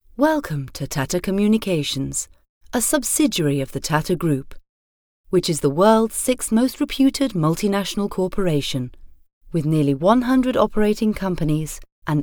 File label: CORPORATE VIDEO, Voice Over